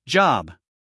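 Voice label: "job", American English, male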